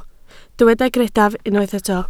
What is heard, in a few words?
"greddf", Welsh